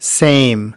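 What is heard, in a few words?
"same", English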